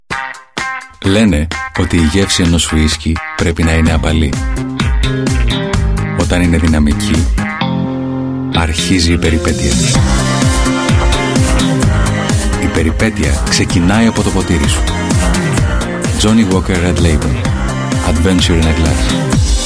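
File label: Greek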